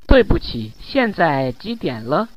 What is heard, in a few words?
Mandarin Conversation